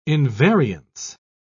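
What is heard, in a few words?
"invariance", British English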